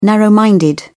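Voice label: "narrow minded", British English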